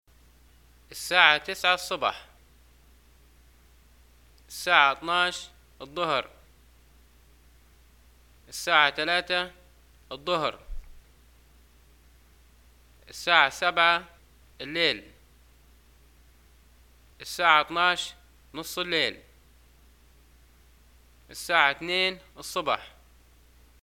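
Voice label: time, Libyan arabic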